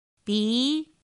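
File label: "bí", chino mandarin; pinyin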